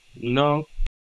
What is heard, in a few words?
Voice Acting, es, M